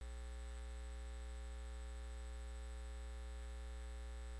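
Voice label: "lick", spoken word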